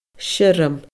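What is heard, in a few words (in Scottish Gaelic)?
"siorram", Scottish Gaelic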